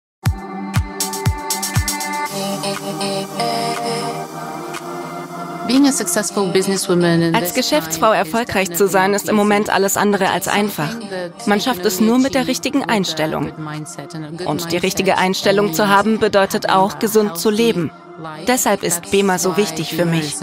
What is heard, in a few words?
VoiceOver; BEMER